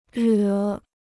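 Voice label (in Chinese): "惹", Chinese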